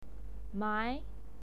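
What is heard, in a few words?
"mai" second tone, pinyin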